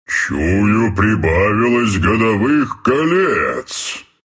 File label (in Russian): levelup ru; Treant; Dota 2